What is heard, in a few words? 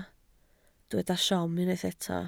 "siom", Welsh